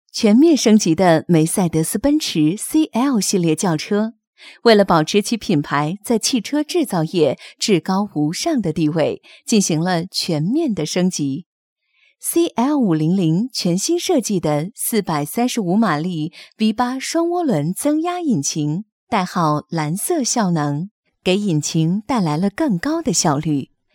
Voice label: 专题 产品 温柔; 梅赛德斯奔驰

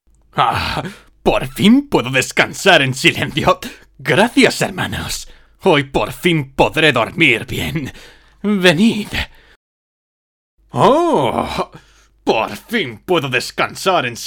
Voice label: 西班牙语男 外语 小语种 游戏角色

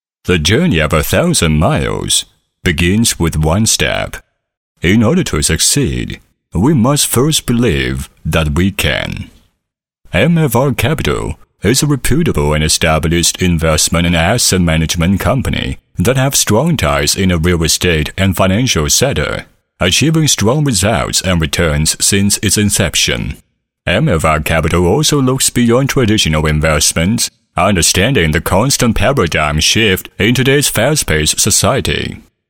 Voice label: 男英 外语 国内英语 高端大气; MFRCapital

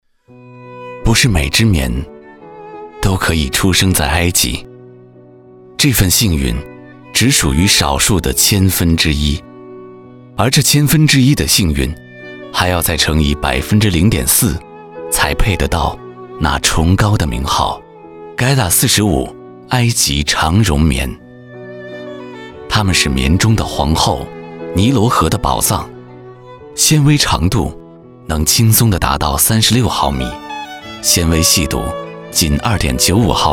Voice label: 男国 专题 产品 高端产品专题 时尚

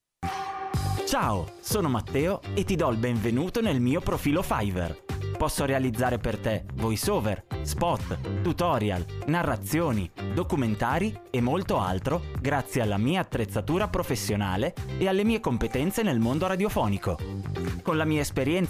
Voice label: 意大利语男 外语 小语种 年轻

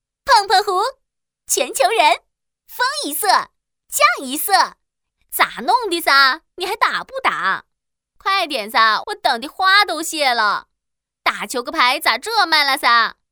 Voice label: 动画 游戏 棋牌语音, 女

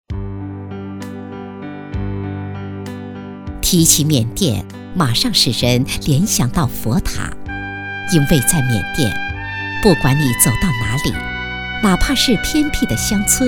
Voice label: 宣传片 旅游; 缅甸

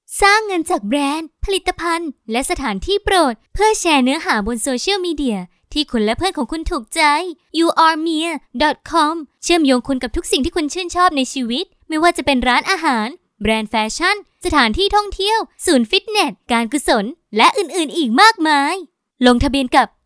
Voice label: 泰语女 外语 小语种

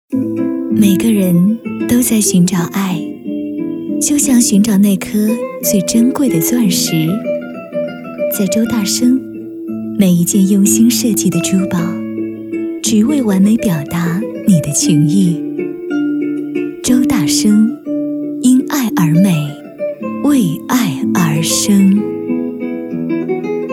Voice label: 广告 珠宝首饰 优雅; 周大生

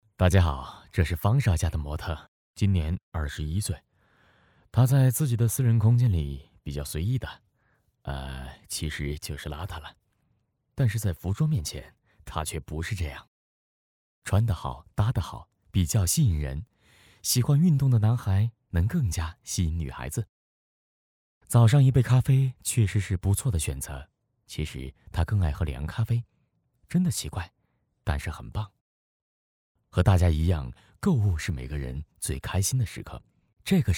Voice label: 男国 动画 角色 旁白轻松; Silves

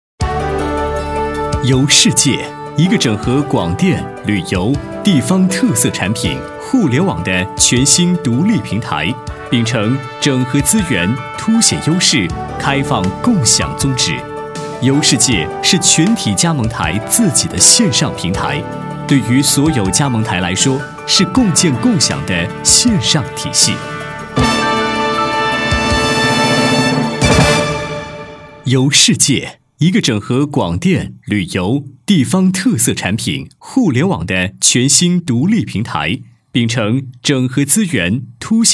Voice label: 男国 专题 产品 年轻; 游视界

